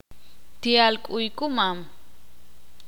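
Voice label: "Indígena" Spanish, Ixil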